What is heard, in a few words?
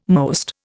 "most", Indian